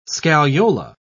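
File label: "scagliola", British English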